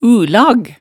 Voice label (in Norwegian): "ulag", hemsedalsmaalet, tale